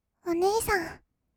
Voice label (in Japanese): お姉さん, kawaii voice; ASMR; 二人称; 1200 kawaii voice!!